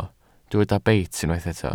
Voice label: "beit", Welsh